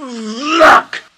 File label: yuck, cartoon; Voice